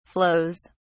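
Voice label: "flows", British English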